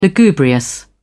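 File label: "lugubrious", British English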